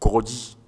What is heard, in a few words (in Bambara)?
"kɔgɔji", Bambara; nature